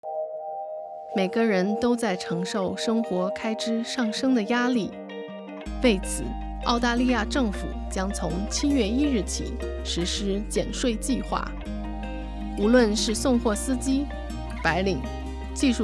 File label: radio, Simplified Chinese